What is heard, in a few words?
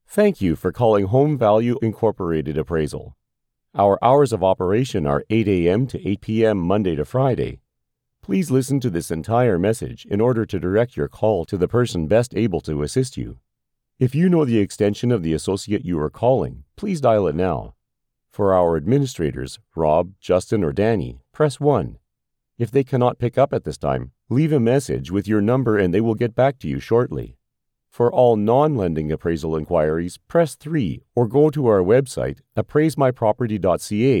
phone menu